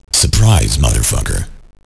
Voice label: surprise; cstrike; QuakeSounds